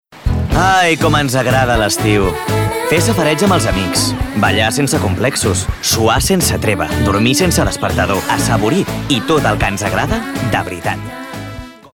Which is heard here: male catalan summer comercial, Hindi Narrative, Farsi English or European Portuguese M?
male catalan summer comercial